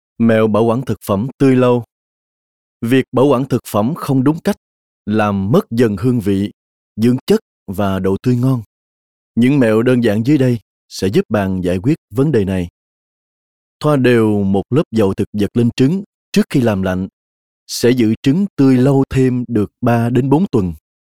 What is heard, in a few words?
male vietnamese